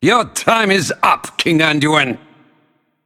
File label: Male Undead; Nathanos; Anduin